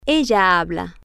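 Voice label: Spanish, this is she, female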